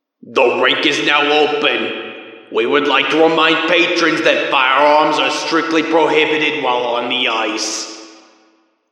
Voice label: Intercom cap open